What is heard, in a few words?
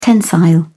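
"tensile", British English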